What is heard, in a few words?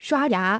"刷牙", china